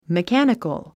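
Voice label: "mechanical", English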